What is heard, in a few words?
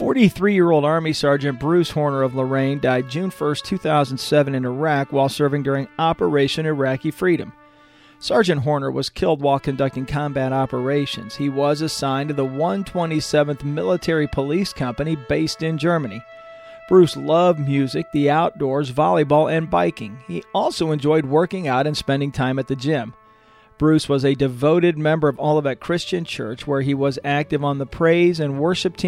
AM Fallen Promo; Ohio Fallen Heroes